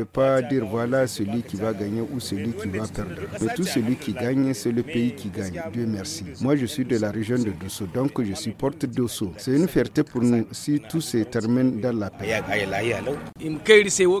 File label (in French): VOX POP LUTTE TRADITIONNELLE; FR